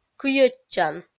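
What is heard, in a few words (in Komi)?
"кыйӧдчан", Komi, female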